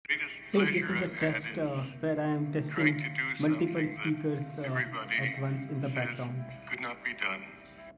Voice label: multi speaker